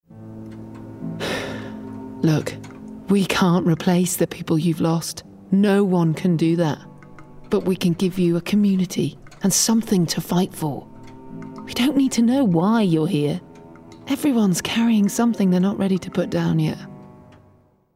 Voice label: Gaming Everywoman